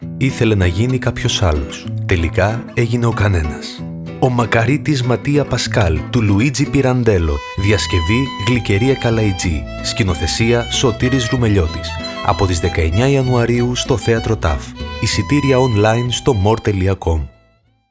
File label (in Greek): Ο μακαρίτης Ματία Πασκάλ Radio Spot